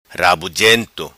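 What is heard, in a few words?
"Rabujento", patua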